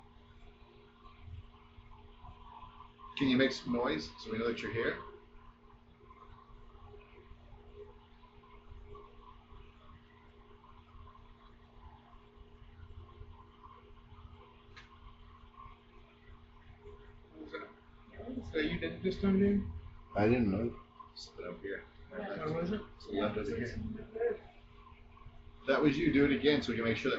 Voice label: Laundry EVP

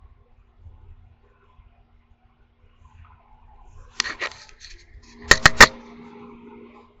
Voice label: Laundry EVP

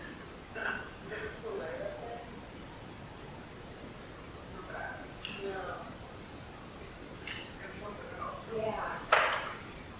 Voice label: Auditorium EVP; twin city opera house investigation

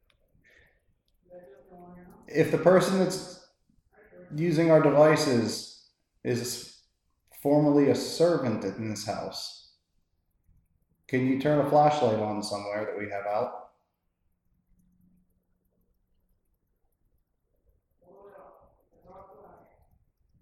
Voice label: Servants Quarters EVP

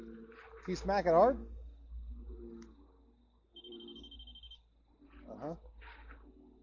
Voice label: Playground EVP

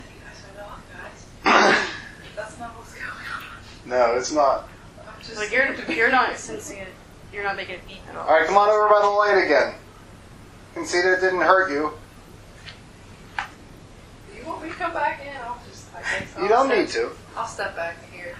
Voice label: Doll Room EVP

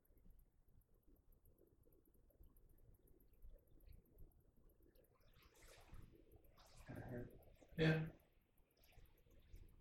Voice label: Janitor Room Basement EVP; poasttown elementary school investigation

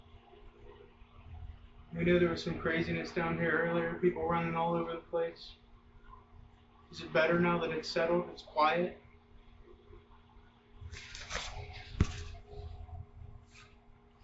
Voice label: Laundry EVP

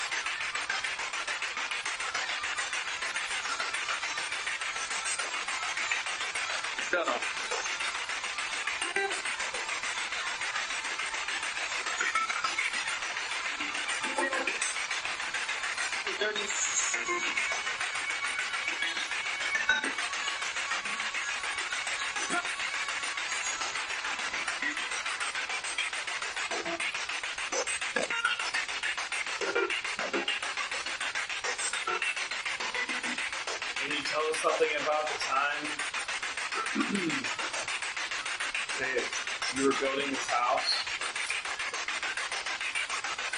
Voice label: Attic Ballroom Spirit Box

